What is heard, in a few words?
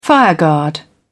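"fireguard", British English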